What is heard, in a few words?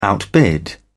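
"outbid", British English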